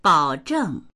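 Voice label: "bao zheng", Mandarin; 保证; 保証（する）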